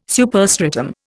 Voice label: "superstratum", Indian